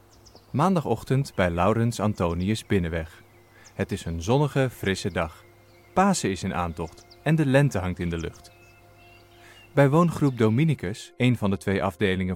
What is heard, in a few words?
dutch documentaries